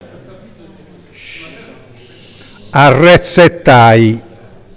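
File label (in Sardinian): "Arretzetai", Sardinian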